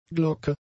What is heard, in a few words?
"Glocke", German